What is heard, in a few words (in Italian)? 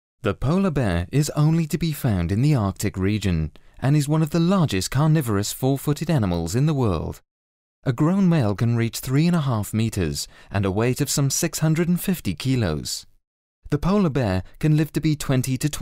english impostata, speakers